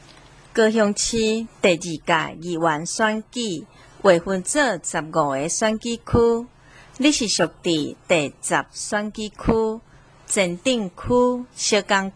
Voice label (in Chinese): 台語, 前言, 有聲選舉公報; 高雄市; 高雄市市長議員有聲選舉公報; 第十選區